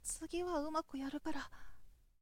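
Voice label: "tugihaumaku", Japanese, boy; shonen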